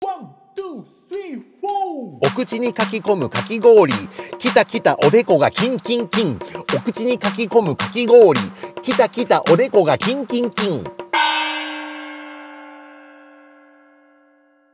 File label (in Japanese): 早口言葉リズム（中級編）